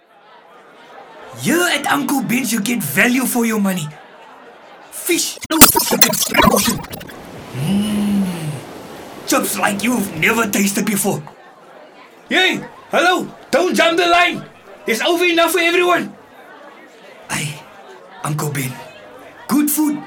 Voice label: Character